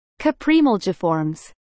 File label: Caprimulgiformes pronunciation